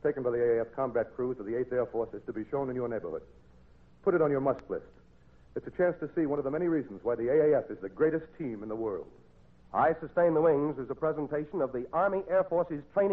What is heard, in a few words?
promo memphis belle documentary; lt broderick crawford